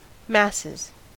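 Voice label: "masses", US English; pronunciations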